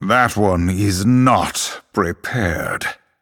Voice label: Male Night Elf ERROR SUMMON SICKNESS